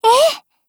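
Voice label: agree, Japanese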